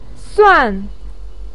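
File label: "suan", Mandarin pinyin, fourth tone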